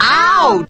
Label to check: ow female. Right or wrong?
Right